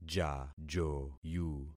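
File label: "jajoyu", concatenated syllables; Affixing experiment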